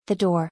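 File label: "The door", English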